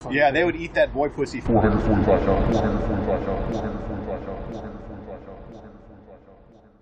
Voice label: reverb echo fade out; edp445

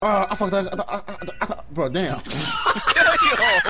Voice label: stutter

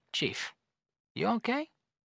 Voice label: voiceover; planescape torment

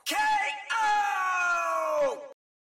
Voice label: announcer k o; jjba eoh

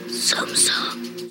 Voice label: zoom zoom whisper

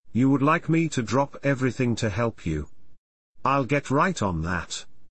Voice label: british no

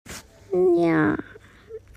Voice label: anime girl sad

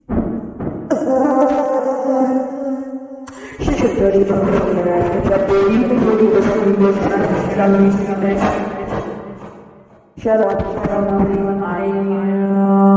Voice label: sussy autotune

